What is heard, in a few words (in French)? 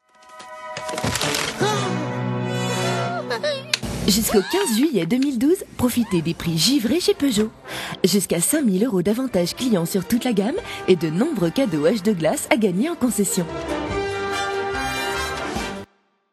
peugeot ton dynamique souriant